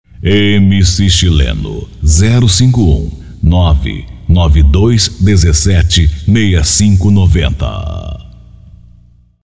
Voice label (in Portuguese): vinheta